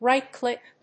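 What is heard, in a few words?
"Right-click", English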